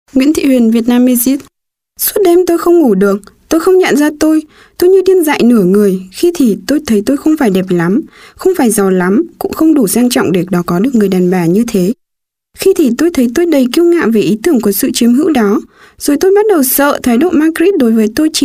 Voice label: Vietnam W

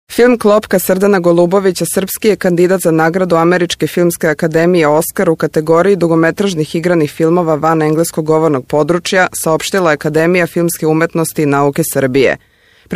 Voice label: Serbien W

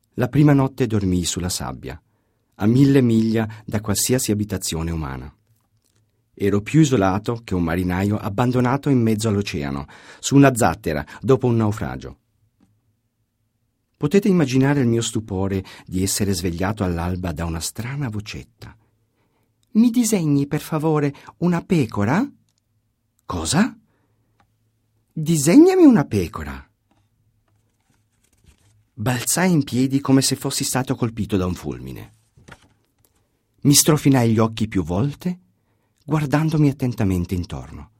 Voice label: Italien M

